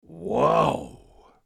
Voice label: "Wow!" Cartoon